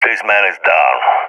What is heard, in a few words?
i killed enemy, balkan; balkan epic